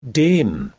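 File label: "dem", Proto-Indo-European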